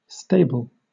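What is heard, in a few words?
"stable" English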